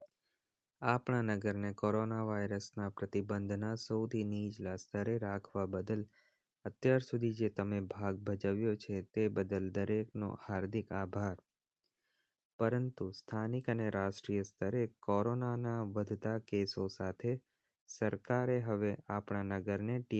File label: gujarati